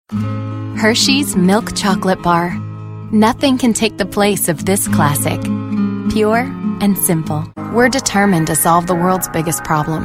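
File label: Commercial, real people female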